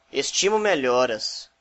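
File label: get well soon, Brazilian Portuguese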